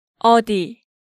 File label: "eodi", Korean